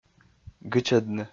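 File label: "гычӧдны", Komi, male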